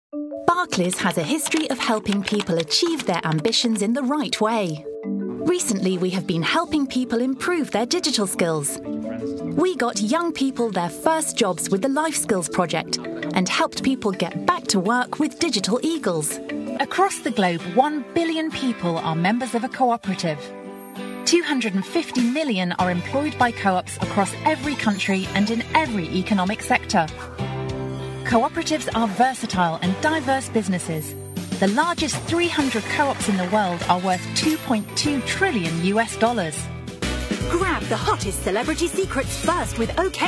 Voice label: English UK

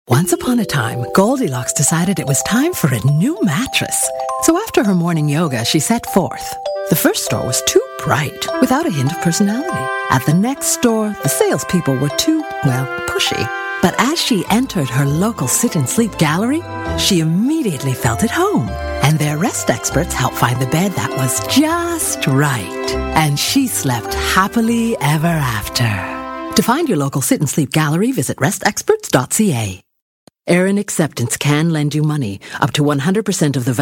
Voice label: English US

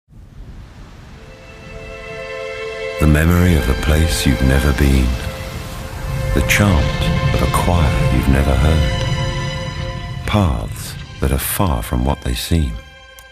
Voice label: English UK

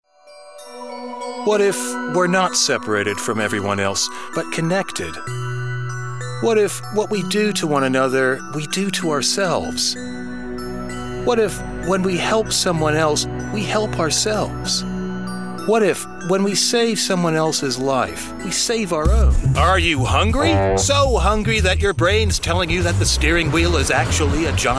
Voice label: English US

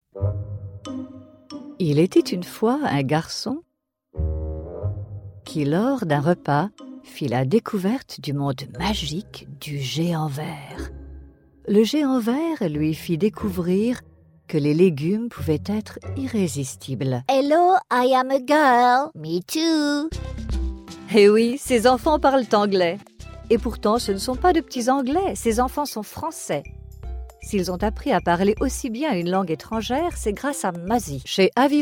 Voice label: French

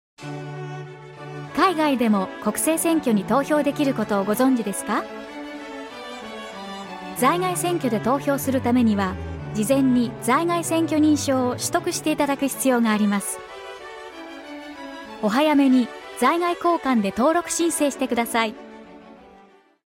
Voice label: Japanese

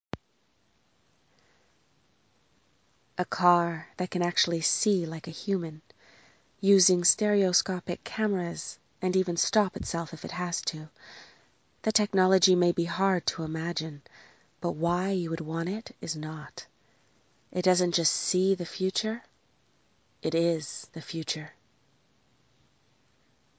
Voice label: English US

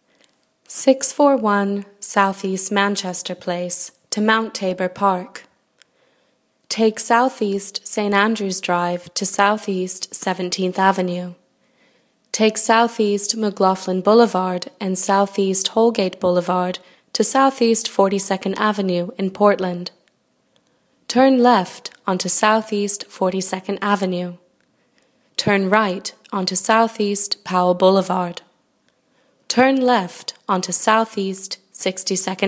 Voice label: English US

